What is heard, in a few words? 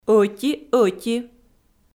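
"ӧти-ӧти" Komi, female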